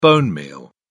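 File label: "bonemeal", British English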